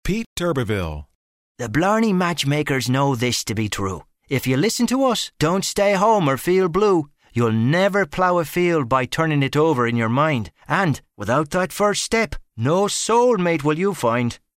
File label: irish